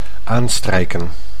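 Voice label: "aanstrijken", Dutch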